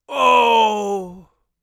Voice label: crit, Mage Voice; Mage Voice Pack

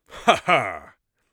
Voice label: amused, mage voice; Mage Voice Pack

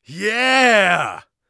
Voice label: yes, Warrior Voice; Warrior Voice Pack

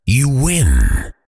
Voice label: "you win", fighter voice over; kenney voiceoverfighter

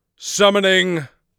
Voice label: summoning, mage voice; Mage Voice Pack

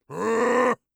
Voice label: angry, orc voice; Orc Voice Pack

